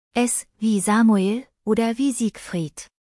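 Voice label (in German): "samuel", German; S wie Samuel oder wie Siegfried; Скажите по буквам – Buchstabieren Sie bitte!; buchstabierung alt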